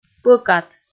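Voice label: "пӧкат", Komi, female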